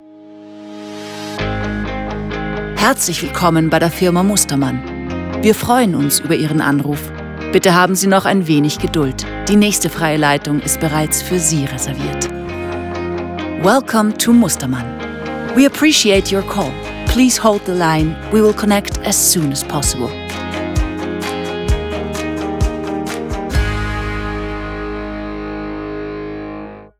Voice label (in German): Tele Ansage